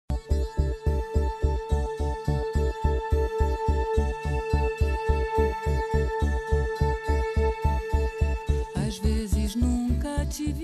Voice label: TIO, Espanhol, Masc; Variadas em Espanhol

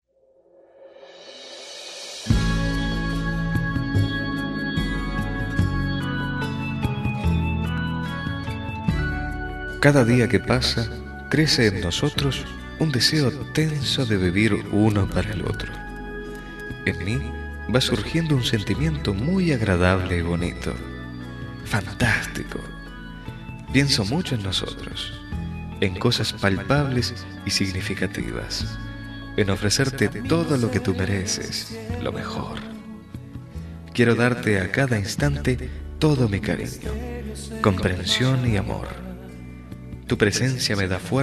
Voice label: Reflexion M, Espanhol, Masc; Variadas em Espanhol

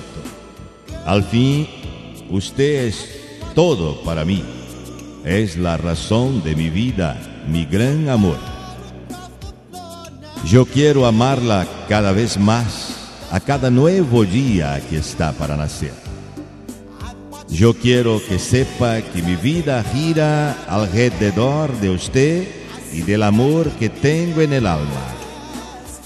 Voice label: Yo te Quero Mucho Masculino; Variadas em Espanhol

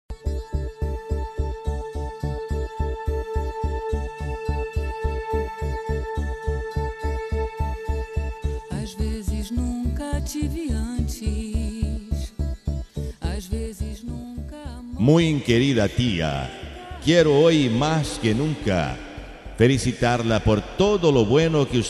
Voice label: TIA, Espanhol, Masc; Variadas em Espanhol

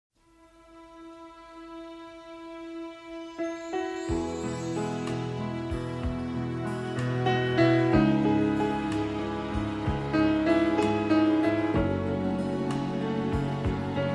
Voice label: Declaracion de amor M, Masc; Variadas em Espanhol

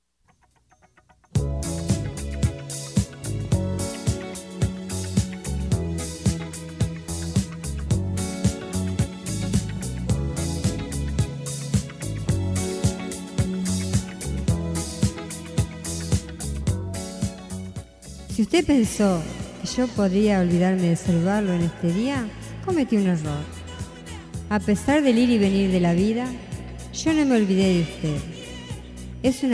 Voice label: SU GRAN DIA F, Espanhol; Fem; Variadas em Espanhol

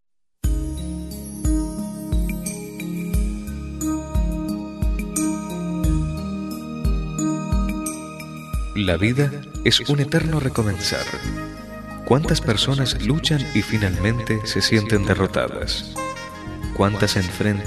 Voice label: Romantica general M; Variadas em Espanhol; Masc

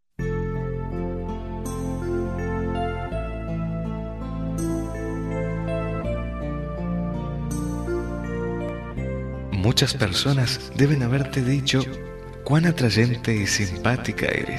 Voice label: Conquista, Espanhol, Masc; Variadas em Espanhol

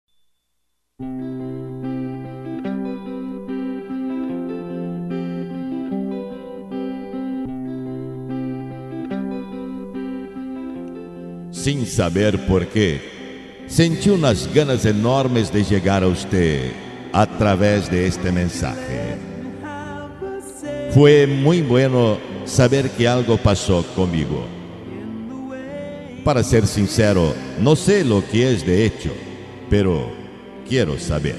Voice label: YO LO QUIERO CONOCER MEJOR, Espanhol, Masc; Variadas em Espanhol

